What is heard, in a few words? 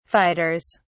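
"fighters", English, British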